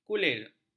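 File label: "kulir", Malay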